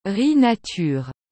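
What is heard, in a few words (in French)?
"riz nature", French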